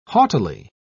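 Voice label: "haughtily", English, uk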